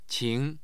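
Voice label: "qing2", Mandarin Chinese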